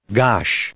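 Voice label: "gosh", English; interjection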